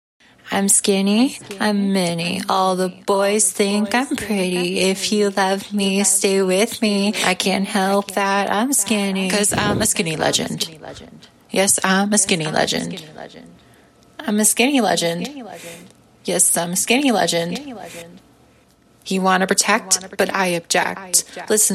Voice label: Acapellas, 100BPM Key Gm